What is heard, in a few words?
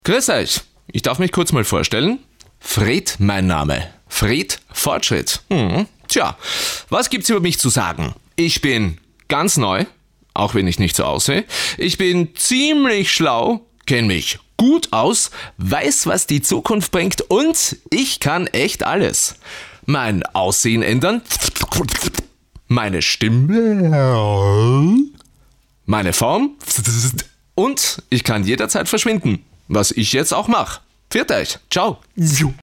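Austrian, Schauspiel Neutral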